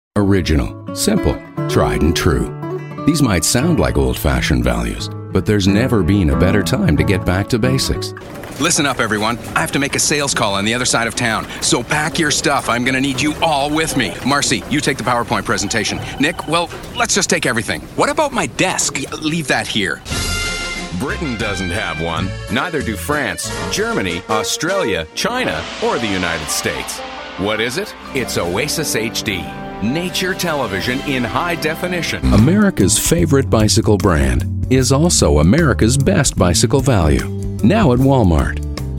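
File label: english us male, voice samples commercial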